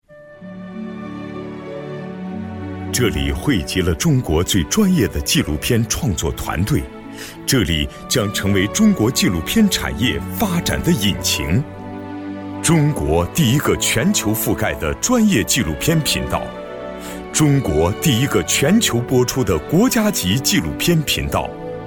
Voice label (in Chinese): 男 纪录片 厚重 低沉 磁性; 央视纪录片·生命